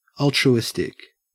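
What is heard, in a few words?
"altruistic", Australian English; pronunciations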